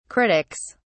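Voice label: "critics", British English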